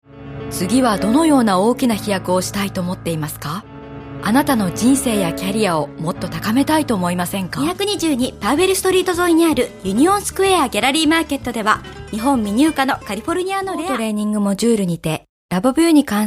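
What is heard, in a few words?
Japanese commercial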